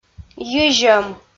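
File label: "йизьӧм", Komi, female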